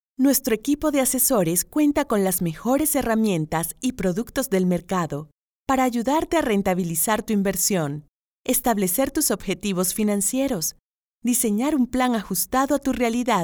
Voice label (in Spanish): CORPORATIVO